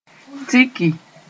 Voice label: "tiki", Moore; to meet